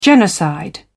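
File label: "genocide", British English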